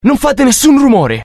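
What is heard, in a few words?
curious, Italian; mohaa; dialogue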